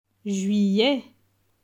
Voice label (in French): "juillet", francais; vocabulaire; le lexique du temps